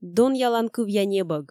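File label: "донъяланкывъя небӧг", Komi, female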